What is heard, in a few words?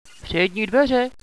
front door, radio bot